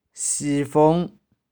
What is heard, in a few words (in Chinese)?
"shi3fung5", 玉環